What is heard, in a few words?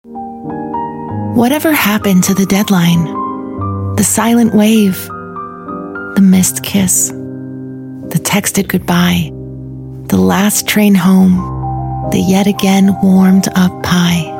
US English; Centrer Parks France